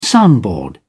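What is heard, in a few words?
"soundboard", British English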